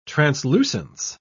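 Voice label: "translucence", British English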